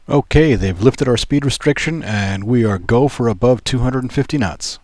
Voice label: not filtered